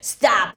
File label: "STOP", SHOUTS; Best Service; Hyper Dance